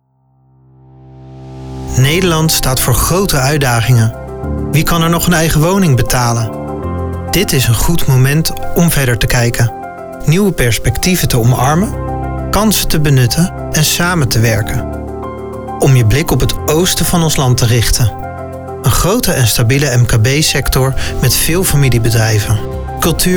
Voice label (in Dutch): Zakelijk informatief